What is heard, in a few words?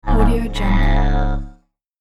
robotic vox oh no